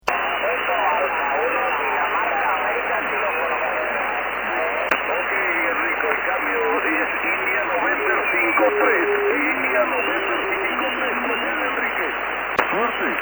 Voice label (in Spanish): llegando muy limpio al RS-12, en SSB; desde IN53